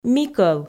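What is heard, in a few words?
"микӧл", Komi, female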